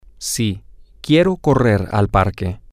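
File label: yes I want to run to the park, Spanish, male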